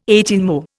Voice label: "eighteenmo", Indian